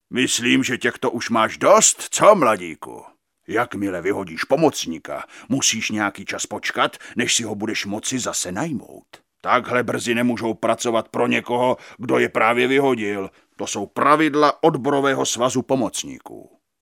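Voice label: Male Czech drama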